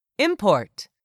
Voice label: "import", English word; 3000 words

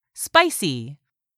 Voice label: "SPICY", english; 3000 words

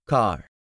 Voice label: "car", English word; 3000 words

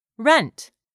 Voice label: "rent", english; 3000 words

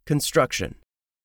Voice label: "construction", english; 3000 words

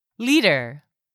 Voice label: "liter", English; 3000 words

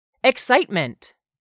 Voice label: "excitement", English; 3000 words

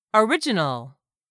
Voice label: "original", English; 3000 words

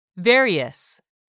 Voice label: "VARIOUS", English; 3000 words

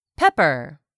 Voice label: "pepper", English; 3000 words

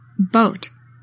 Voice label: "BOAT", English; 3000 words

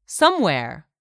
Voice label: "SOMEWHERE", english; 3000 words

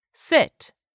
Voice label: "sit", English; 3000 words

